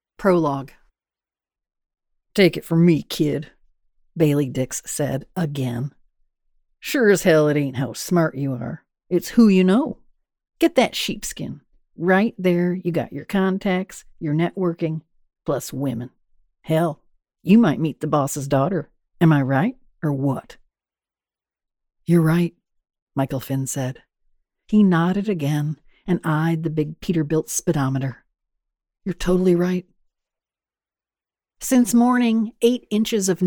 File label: Fiction Mystery Audiobook